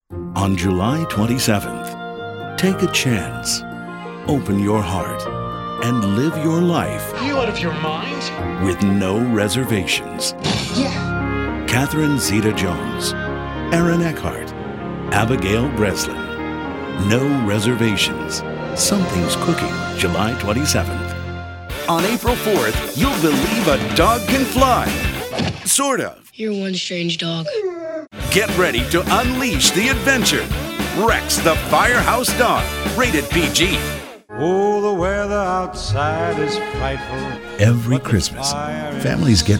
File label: trailer